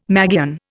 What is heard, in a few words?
"magian", Indian